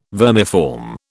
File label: "vermiform", British